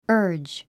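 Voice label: "urge", English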